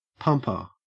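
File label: "pumper", Australian English; pronunciations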